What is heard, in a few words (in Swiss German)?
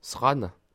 "ranne", alsace